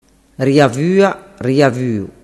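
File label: "riavuariavuu", Sicilian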